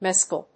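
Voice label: "mescal", English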